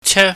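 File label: "che", Cantonese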